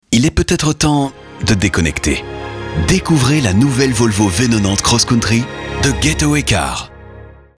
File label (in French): pub radio; Volvo V90